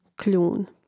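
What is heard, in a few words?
"kljun", Croatian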